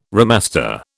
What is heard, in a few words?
"remaster", British